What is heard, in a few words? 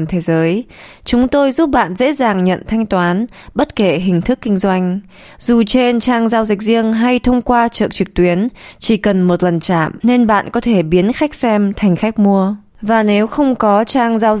Vietnamese Female Send Money